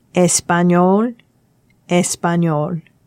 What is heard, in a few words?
"espagnol", French